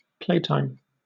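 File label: "playtime", British English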